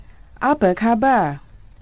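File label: how are you, Malay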